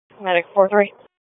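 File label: Public Safety Tactical, p25; wake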